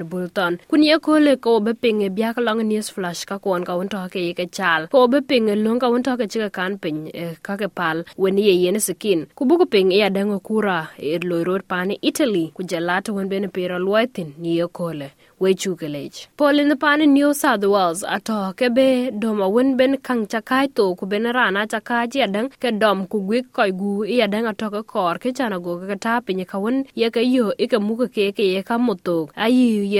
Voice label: dinka; sbs